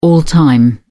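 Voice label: "all-time", English, British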